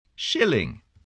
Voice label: "shilling", spelling

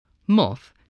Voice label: "moth", voice, spelling

